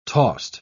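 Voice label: "tost", English, British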